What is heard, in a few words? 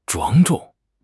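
"庄重", Chinese; HSK6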